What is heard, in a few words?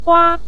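"gua", Mandarin Chinese, neutral tone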